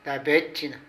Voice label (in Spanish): Hermano (de hombre), Zapoteco de Petapa; Vocabulary